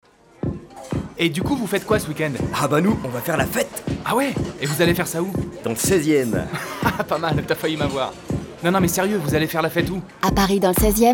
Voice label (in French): Pub radio dialoguée